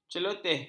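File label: "celoteh", Malay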